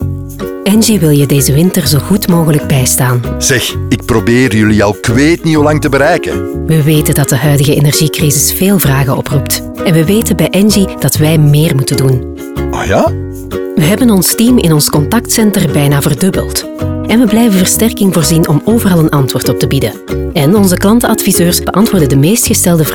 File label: radio; contact center